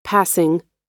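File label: "passing", American English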